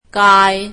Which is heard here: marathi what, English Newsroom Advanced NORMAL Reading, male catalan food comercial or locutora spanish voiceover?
marathi what